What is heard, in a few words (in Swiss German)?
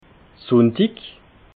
"sunndig", alsace